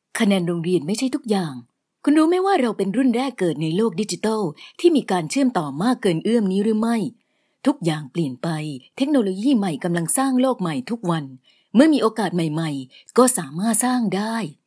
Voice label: Thai female; Foreign language voice over

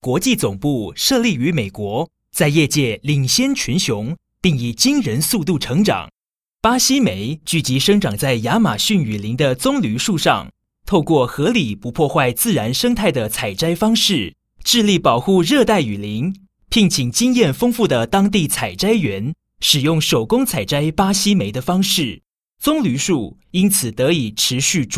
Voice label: Taiwanese male; Foreign language voice over

